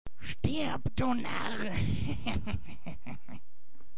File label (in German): Komisch - Narr; Stirb, du Narr!